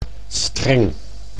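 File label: "streng", Dutch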